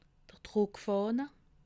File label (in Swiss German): "druckfahne", alsace